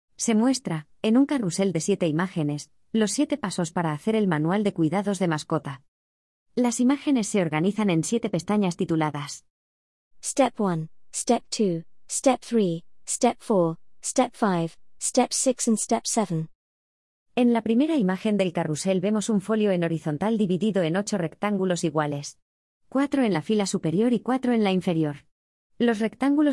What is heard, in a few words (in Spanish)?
Audiodescripcion; Carrusel Pasos del manual